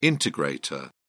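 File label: "integrator", British English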